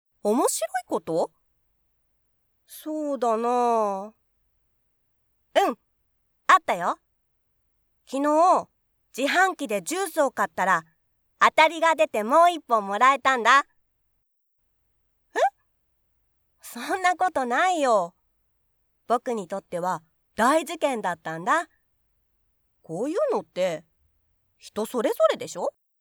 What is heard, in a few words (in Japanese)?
個性派少年